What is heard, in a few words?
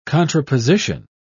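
"contraposition", British English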